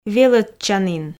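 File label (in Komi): "велӧдчанін", Komi, female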